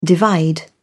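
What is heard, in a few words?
"divide", British English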